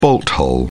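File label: "bolt-hole", British English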